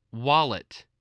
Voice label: "wallet", spelling